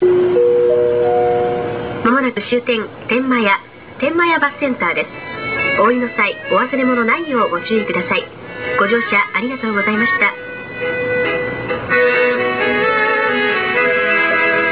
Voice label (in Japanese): bus announce; ryobi